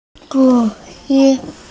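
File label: "shu4ye4", Mandarin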